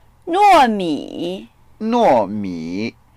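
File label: "nuo4mi3", Mandarin Chinese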